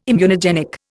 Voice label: "immunogenic", Indian